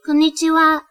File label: "Hello", Japanese